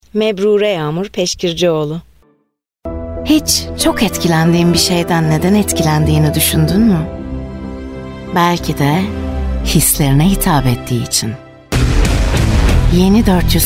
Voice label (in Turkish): turkce kadin